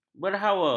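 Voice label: "berhawa", Malay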